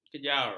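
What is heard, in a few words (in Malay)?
"kejar", Malay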